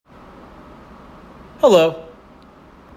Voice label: hello, us